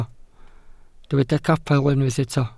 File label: "capel", Welsh